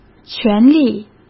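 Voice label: "权利", Mandarin Chinese; chinese flashcards; hsk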